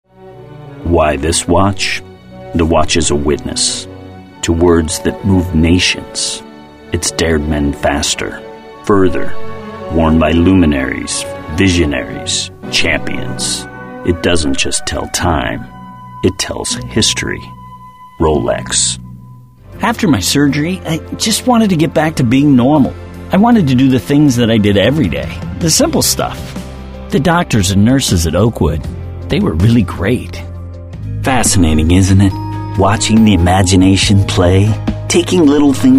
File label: Commercial